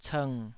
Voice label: "cng3", Taiwanese

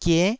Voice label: "gie9", Taiwanese syllable

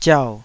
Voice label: "ziau3", Taiwanese syllable

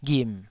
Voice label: "ghim3", Taiwanese syllable

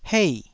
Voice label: "hei4", taiwanese

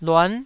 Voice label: "luan9", Taiwanese syllable

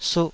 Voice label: "suh2", taiwanese Syllables

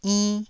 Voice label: "inn1", taiwanese syllables

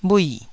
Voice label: "bhui4", taiwanese Syllables

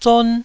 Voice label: "zon1", taiwanese

